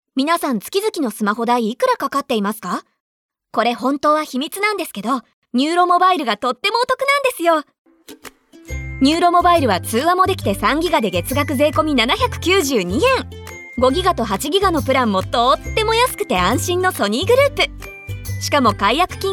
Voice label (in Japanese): ナレーション; nuro